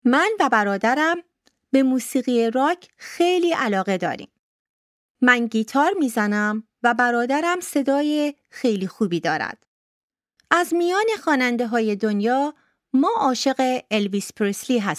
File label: text farsi